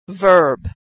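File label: "verb", American English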